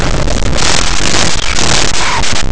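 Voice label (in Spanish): "es gracioso", Spanish, fricatives; laboratori fonetica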